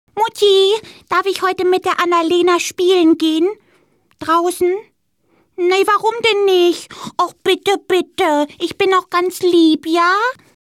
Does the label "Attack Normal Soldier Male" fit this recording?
No